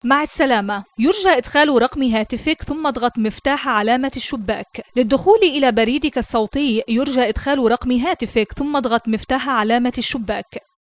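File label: Arabic Commercial; GoLocalise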